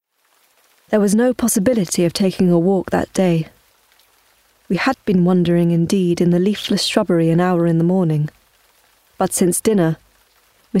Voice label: audiobook; Jane Eyre